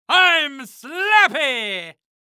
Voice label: Cartoon Loud Voice; AdobeCC; I'm Slappy